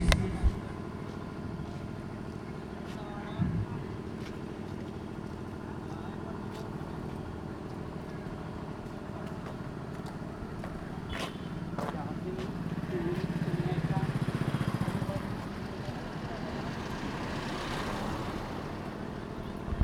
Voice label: Mosque voice, South India street recordings

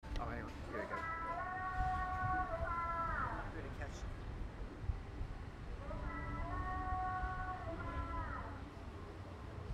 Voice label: Street speaker voice, phnom penh; 2019; Cambodia phnom penh

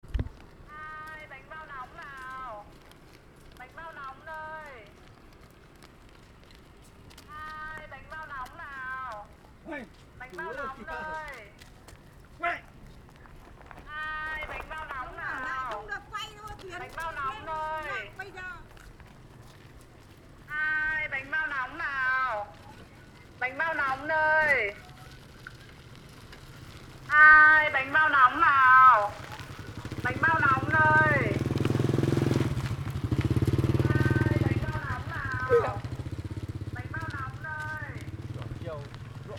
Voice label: street caller, Vietnam; 2019

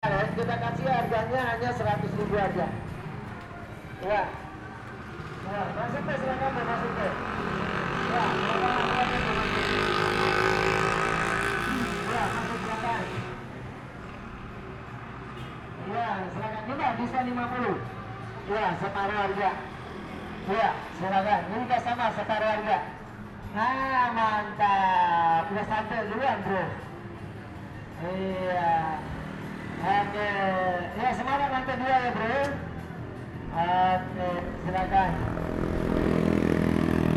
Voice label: jakarta strange voice

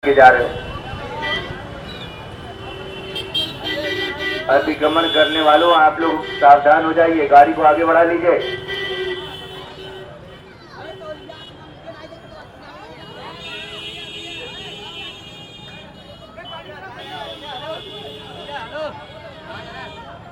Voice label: Street Voice, North India

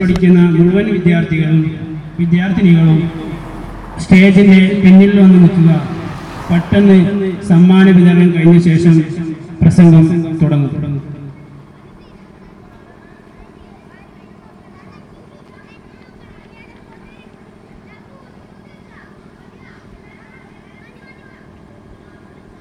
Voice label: Mosque voice, South India street recordings

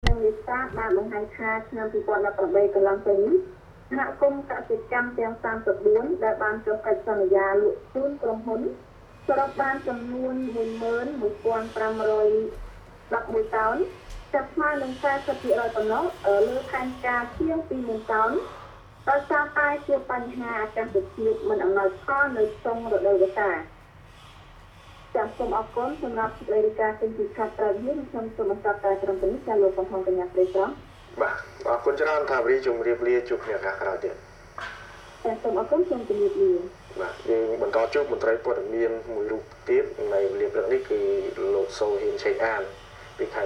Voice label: voice narrat TV; 2019; Cambodia phnom penh

